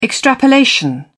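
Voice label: "extrapolation", British English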